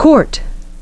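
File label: "quart", English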